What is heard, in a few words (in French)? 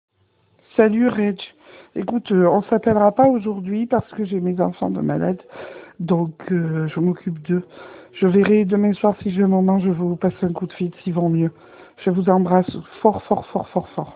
Message vocal